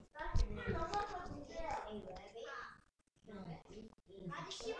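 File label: "ring", spoken word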